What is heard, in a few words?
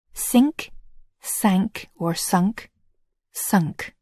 "sink", English